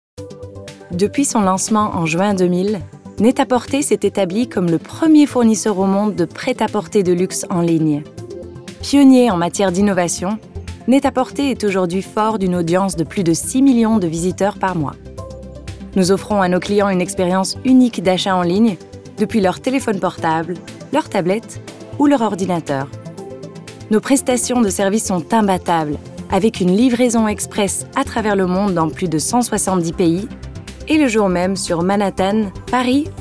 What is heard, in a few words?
French Narrative